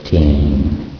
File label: "teen"; melnorme